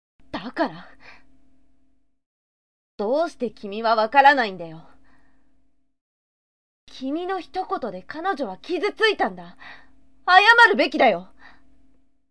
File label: sample boy